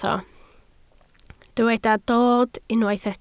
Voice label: "dod", Welsh